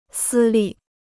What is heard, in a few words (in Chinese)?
"私立", Chinese